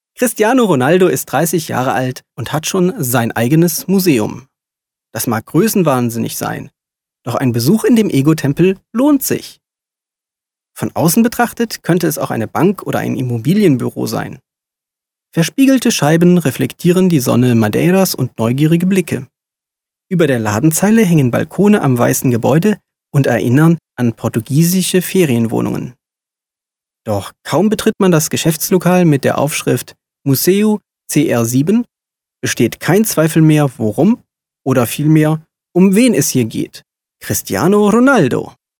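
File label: German male corporate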